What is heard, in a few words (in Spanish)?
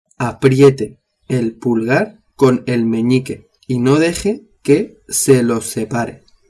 "apriete el pulgar", spanish